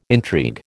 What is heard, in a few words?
"intrigue", American